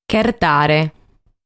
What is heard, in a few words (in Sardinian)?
"Chertare", sardu